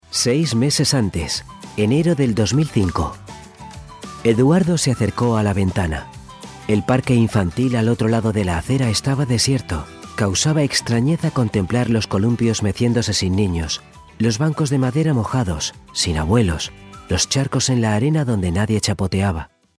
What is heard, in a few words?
spanish male narration